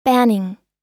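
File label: "banning", American English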